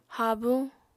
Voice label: "habuñ", apatani